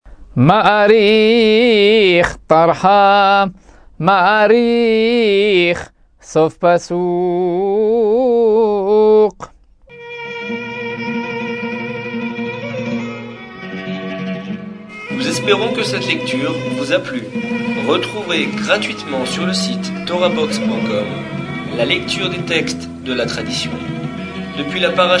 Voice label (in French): apprentissage taamim selon le rite constantinois; paracha